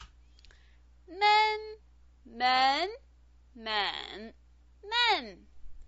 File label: "men", Chinese pinyin